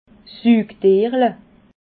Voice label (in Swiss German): "sugtierla", alsace